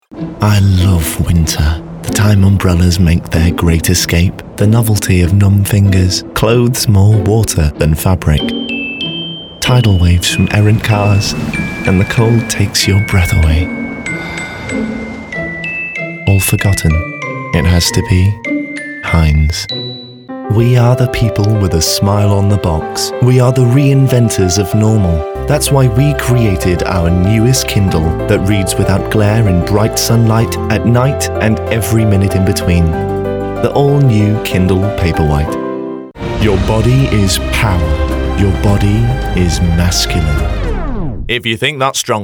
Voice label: Commercial

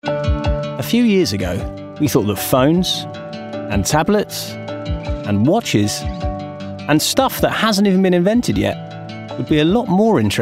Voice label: COMMERCIAL; Android

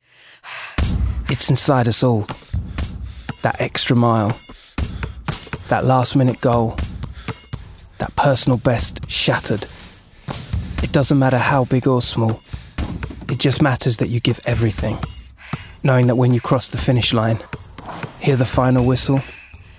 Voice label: Commercial; Lucozade Sport

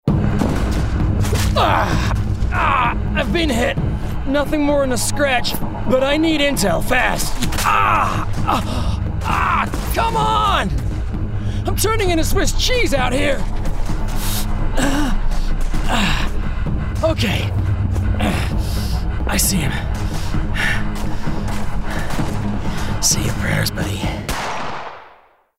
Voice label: Gaming Character Soldier US; Soldier American

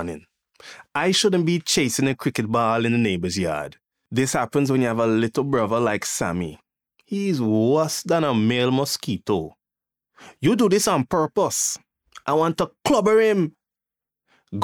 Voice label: Folklore Bookread; Folklore Story (West Indian accent)

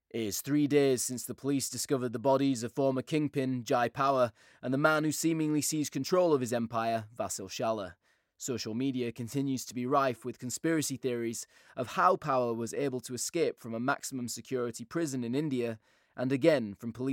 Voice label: News Reader Northern

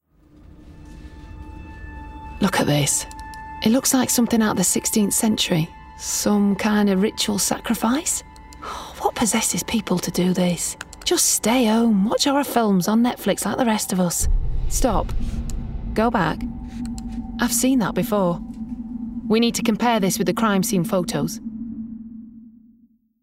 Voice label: Gaming Detective Northern

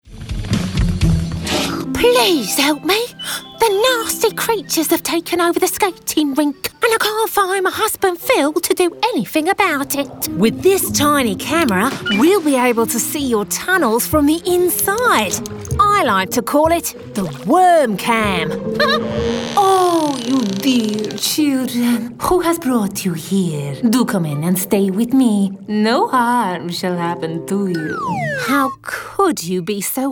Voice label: animation

